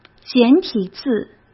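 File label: "简体字", Mandarin Chinese; hsk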